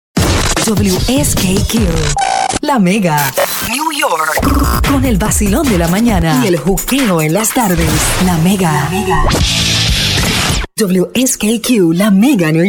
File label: spanish voice over female commercial